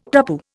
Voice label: "tapu", Indian

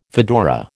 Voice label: "fedora", American

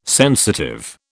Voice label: "sensitive", British

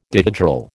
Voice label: "dihedral", American

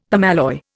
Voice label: "permalloy", Indian; Dictionary

